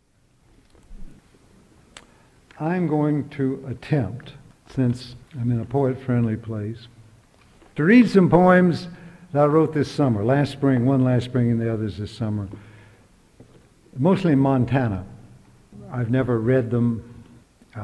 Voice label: reading